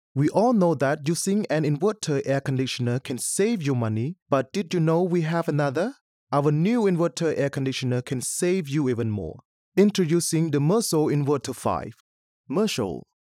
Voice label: English; voiceover-cambodia